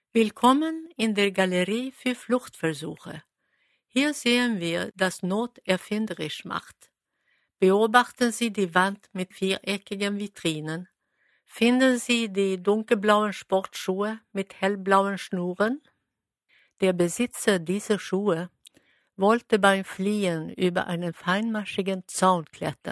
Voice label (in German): cell, audioguider